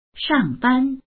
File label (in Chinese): "shang4ban1", voices; 上班; 出勤する